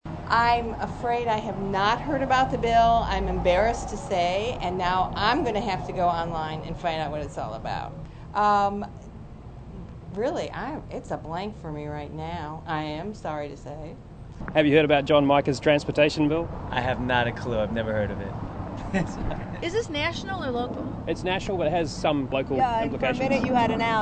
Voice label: Vox Pops